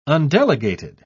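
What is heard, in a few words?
"undelegated", British English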